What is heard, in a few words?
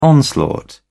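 "onslaught", British English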